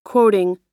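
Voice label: "quoting", American English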